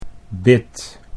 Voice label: "bid", Dutch

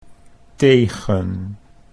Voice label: "tegen", Dutch

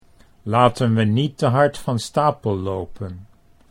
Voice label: laten we niet te hard van stapel lopen, Dutch

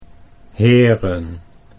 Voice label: "heren", Dutch

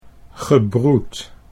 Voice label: "gebroed", Dutch

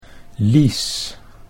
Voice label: "lies", Dutch

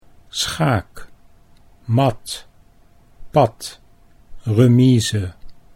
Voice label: "schaakmat", Dutch; chess

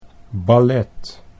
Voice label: "ballet", Dutch

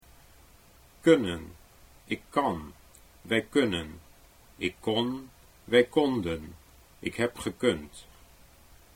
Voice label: kunnen ik kan, Dutch

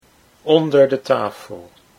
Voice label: onder de tafel, Dutch

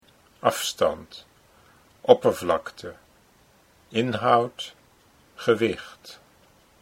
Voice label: afstand oppervlakte, Dutch

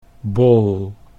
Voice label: "bol", Dutch

